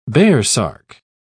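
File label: "baresark", British English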